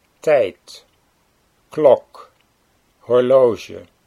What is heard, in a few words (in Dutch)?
"tijd klok", Dutch